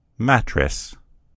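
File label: "mattress", US English; gcompris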